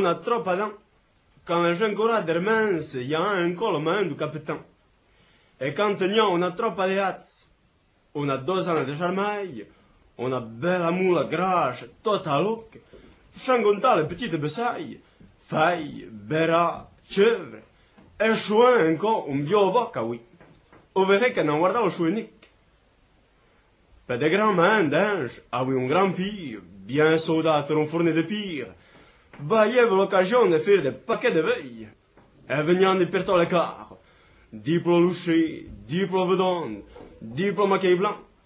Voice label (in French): le diable au mayen patois d Heremence; patois valaisans